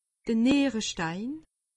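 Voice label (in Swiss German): "nierestein", Alsatian; medecine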